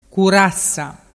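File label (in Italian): "curassa", Vernazzese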